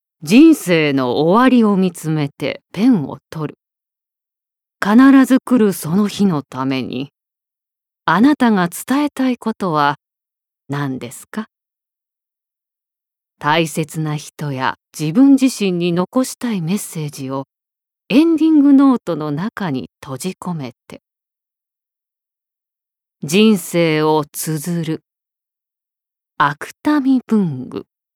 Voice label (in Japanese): ナレーション